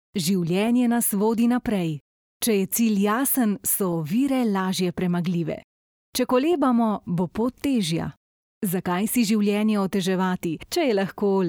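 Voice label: Slovenian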